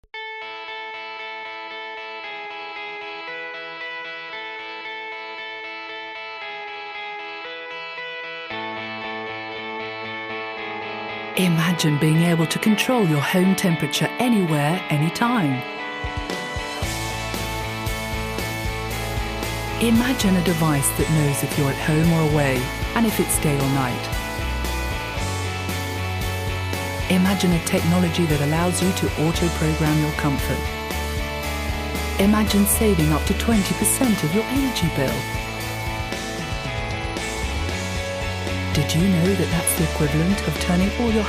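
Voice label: comercial, British English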